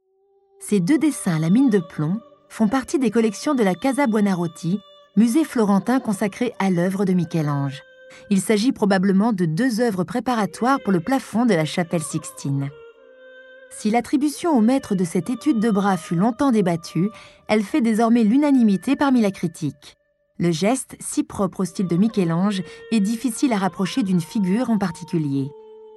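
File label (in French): Audioguide, voix off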